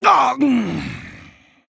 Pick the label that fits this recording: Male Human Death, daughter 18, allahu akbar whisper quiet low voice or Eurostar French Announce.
Male Human Death